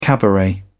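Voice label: "cabaret", British English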